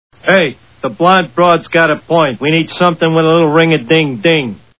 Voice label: man ring-a-ding-ding; Simpsons